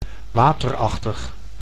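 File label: "waterachtig", Dutch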